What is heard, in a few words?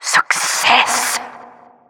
Announcer success